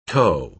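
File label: "toe", American English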